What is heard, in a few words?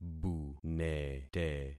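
"bunete", concatenated syllables; Affixing experiment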